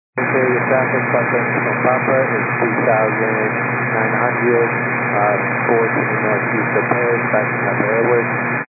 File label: 122 925MHz, scanner radio